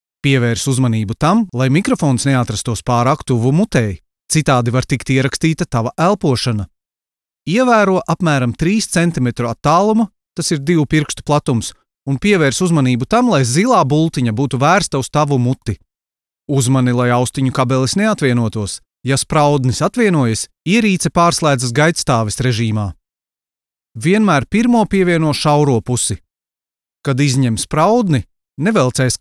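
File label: Latvian, male, e-learning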